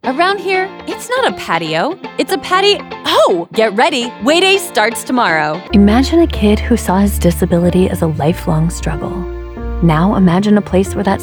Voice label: Commercial